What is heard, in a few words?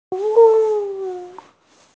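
"service", hearing impaired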